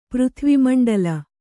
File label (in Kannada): "ಪೃಥ್ವಿಮಂಡಲ", Kannada